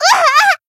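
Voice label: damaged, Japanese; melody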